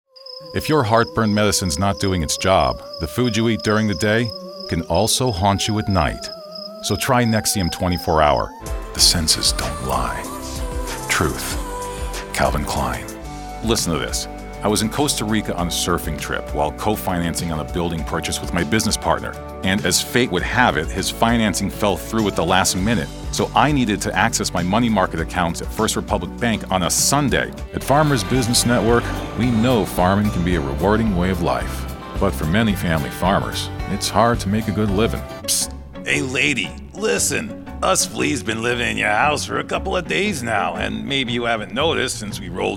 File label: Commercial